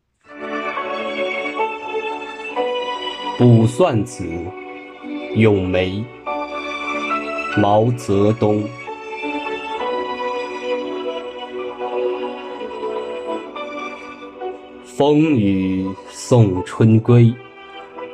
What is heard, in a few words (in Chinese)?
诗朗诵